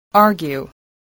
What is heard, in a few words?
"argue", English